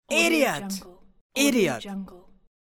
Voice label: teenage boy says idiot pack

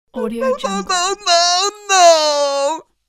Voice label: male disappointed no shout

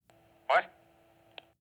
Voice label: intercom vocals male what huh pa clicks

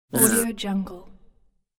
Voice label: cartoon bee yes

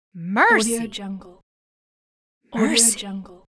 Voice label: female saying "mercy"

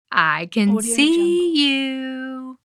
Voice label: female saying softly i can see you

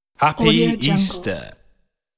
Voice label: happy easter spoken

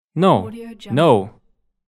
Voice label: male voice no

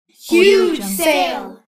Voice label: kids say huge sale

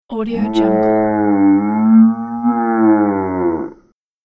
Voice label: mountain ogre monster for fantasy games

